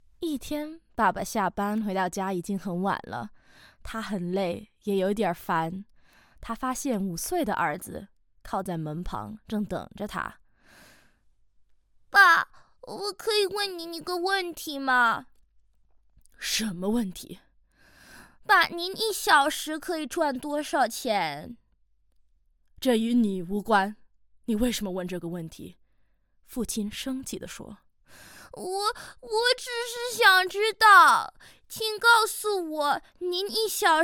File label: Mandarin; Chinese-Mandarin